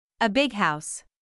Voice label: "a big house", English